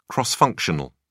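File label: "cross-functional", British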